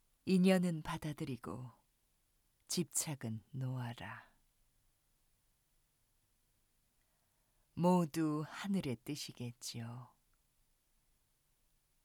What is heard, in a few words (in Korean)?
침착한 승려 차분한 세련된 30대